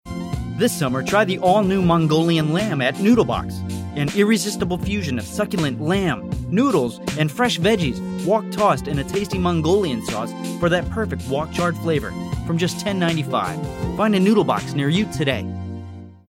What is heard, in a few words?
male american english noodle comercial; ideasonora